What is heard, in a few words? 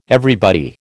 "everybody", American